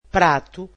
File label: "plate", portuguese